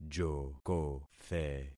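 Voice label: "jokothe", concatenated syllables; Affixing experiment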